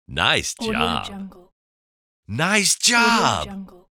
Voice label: male voice nice job